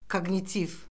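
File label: "koqnitiv", Azerbaijani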